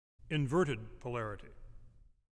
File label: Announcement